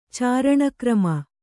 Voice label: "ಚಾರಣಕ್ರಮ", Kannada